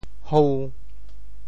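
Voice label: "hou~5", Teochew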